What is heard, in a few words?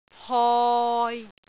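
"hoi6", Cantonese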